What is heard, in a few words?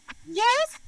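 voice acting, es, female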